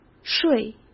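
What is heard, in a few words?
"税", Mandarin Chinese; hsk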